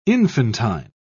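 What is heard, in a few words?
"infantine", British English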